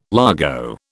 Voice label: "largo", British